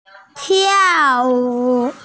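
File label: "dance", hearing impaired; tiao4wu3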